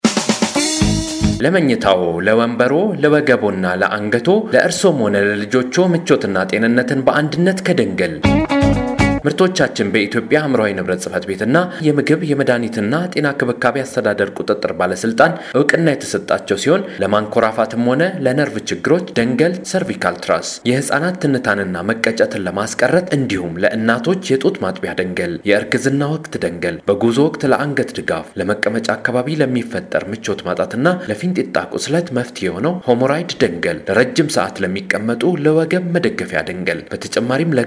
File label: radio ad